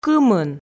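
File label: "кымын", Komi, female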